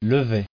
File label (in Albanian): "lever", frengjisht